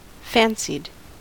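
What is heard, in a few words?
"fancied", American English; pronunciations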